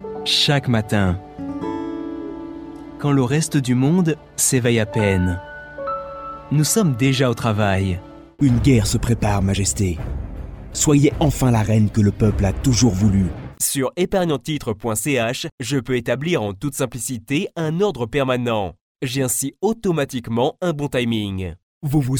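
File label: French narration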